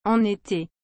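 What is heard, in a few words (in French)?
"en été", French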